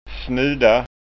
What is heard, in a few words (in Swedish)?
"snuda", Skånska